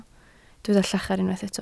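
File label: "llachar" Welsh, spoken word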